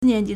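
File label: "nian2ji2", Mandarin Chinese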